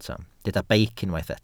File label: "beic", Welsh